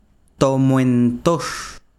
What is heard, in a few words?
besiro cuerda, chiquitano